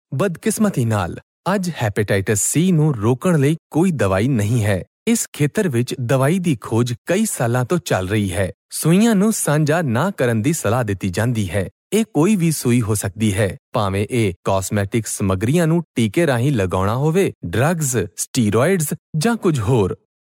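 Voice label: Punjabi, male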